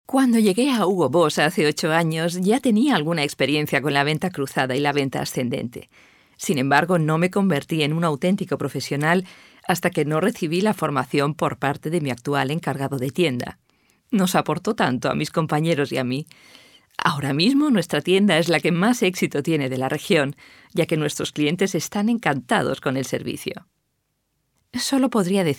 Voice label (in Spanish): es elearning